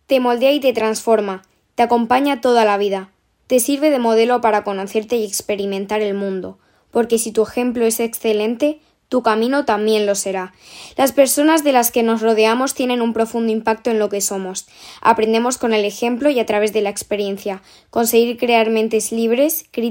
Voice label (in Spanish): locutora nina child spanish voiceover